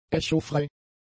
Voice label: "echofrei", german; adjectives

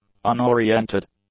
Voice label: "unoriented", english; adjectives

